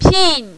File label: "pin4", mandarin, female